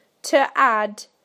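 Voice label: "to add", British English; PictureDictionary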